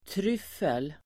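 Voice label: "tryffel", Swedish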